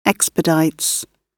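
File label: "expedites", British English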